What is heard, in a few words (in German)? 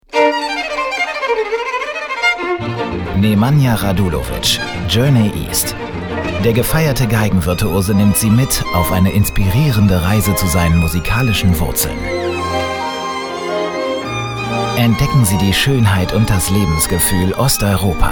Radiospot